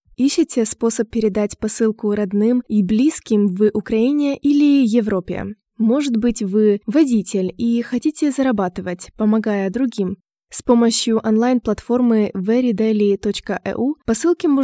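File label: Russian Voice Over Promotion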